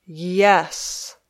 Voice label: "yes", English